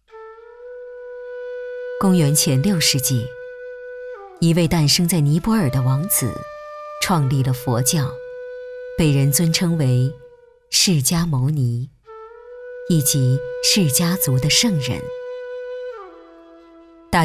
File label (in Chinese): 纪录片, 探索风格; 佛教